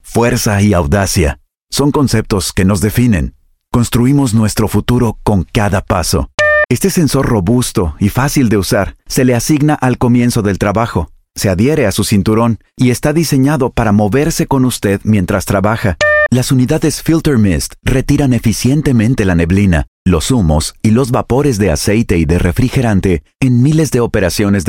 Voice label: spanish voice over male narrator